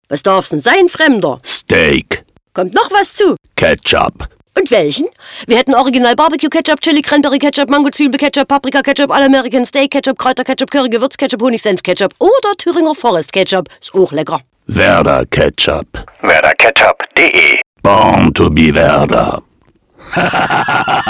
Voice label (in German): Nur Sprache lachendes Echo Phonefilter; Radiospots